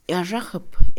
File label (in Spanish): permitir, Mixe, Mujer; INALI